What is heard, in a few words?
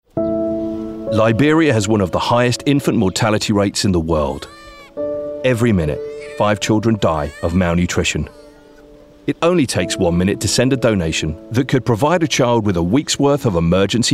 Commercial; UNICEF